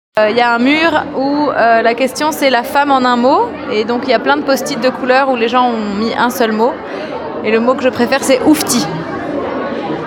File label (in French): femme oufti